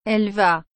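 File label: "elle va", French; aller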